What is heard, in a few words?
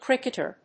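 "cricketer", English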